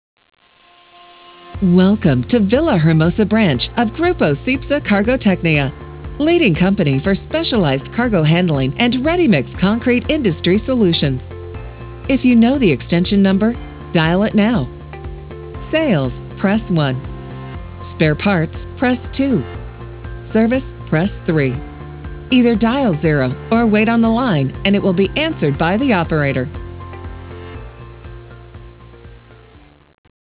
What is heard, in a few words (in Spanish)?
IVR ingles femenino